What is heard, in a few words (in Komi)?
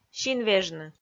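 "синвежны", Komi, female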